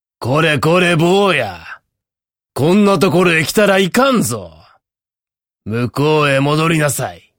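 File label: narration male natural; nenrei; m ojisan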